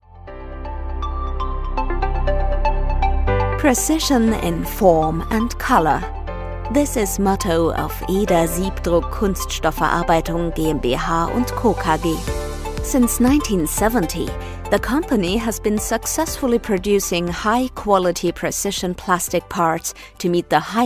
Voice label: EN Image; Eder